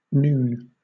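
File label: "nun", British English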